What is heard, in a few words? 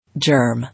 "Germ", English